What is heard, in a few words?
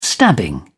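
"stabbing", British English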